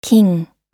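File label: "king", American English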